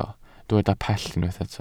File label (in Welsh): "pell", Welsh